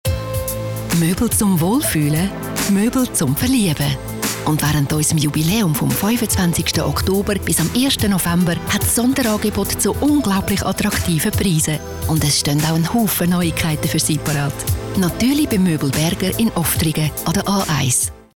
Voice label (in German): Werbung CH AG